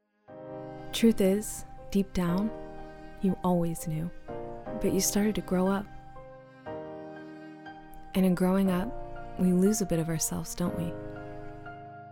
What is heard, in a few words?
voice over inspirational